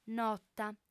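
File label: "nota", female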